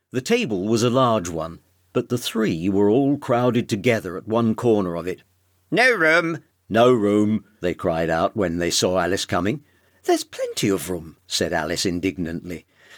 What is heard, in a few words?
Childrens Audiobooks